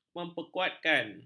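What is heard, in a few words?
"memperkuatkan", Malay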